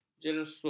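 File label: "jeraus", Malay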